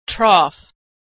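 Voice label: "trough", American English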